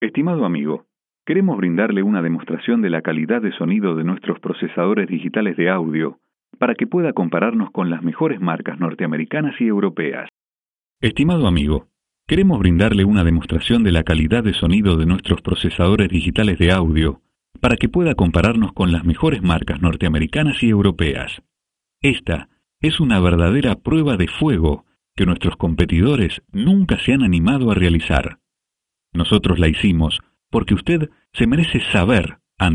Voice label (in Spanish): Locucion; consola de audio mx 2400 vqr skype; LINEA y VQR